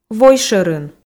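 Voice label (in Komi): "вой шӧрын", Komi, female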